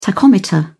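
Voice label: "tachometer", British English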